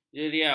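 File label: "jeriau", Malay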